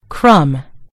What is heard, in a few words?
"crumb", en GB; gcompris